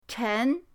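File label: "chen2", Chinese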